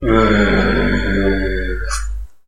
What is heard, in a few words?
zombie